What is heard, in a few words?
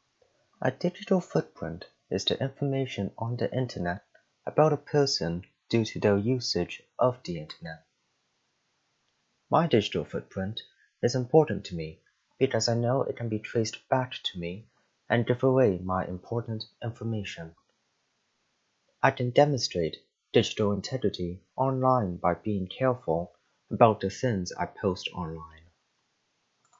Podcast; Digital Footprint